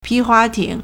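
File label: "pi2hua2ting3", Mandarin Chinese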